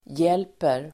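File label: "hjälper", Swedish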